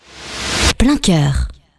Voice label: LINER; PLEIN COEUR; MOULINS